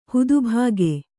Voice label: "ಹುದುಭಾಗೆ", Kannada

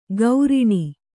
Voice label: "ಗೌರಿಣಿ", Kannada

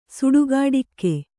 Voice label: "ಸುಡುಗಾಡಿಕ್ಕೆ", Kannada

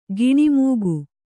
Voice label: "ಗುಣಮುಖ", Kannada

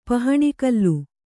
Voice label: "ಪಹಣಿಕಲ್ಲು", Kannada

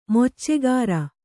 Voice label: "ಮೊಚ್ಚೆಗಾರ", Kannada

